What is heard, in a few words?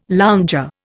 "lounger", Indian